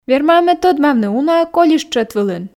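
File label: верма-ӧ ме тӧдмавны уна-ӧ колис тшӧт вылын, Komi, female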